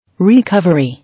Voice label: "Recovery", English